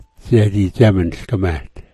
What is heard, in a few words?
"dza", smalgyax